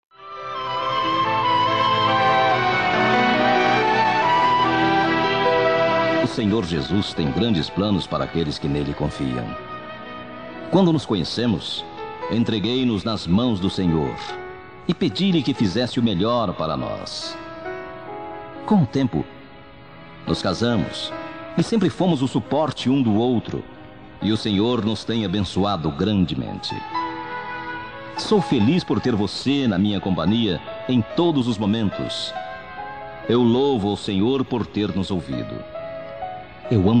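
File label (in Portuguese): Evangélica Aniversário de Casamento, male, telemensagens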